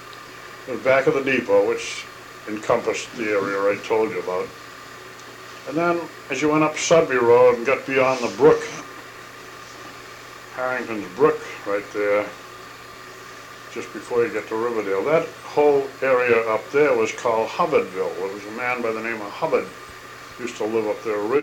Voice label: Oral history with 73 year old male Concord Massachusetts, american english dialect